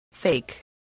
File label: "fake", voice